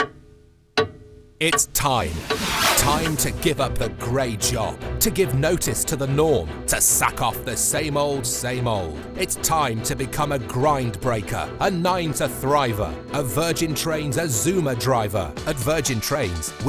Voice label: Radio Ad; Virgin Trains East Coast Azuma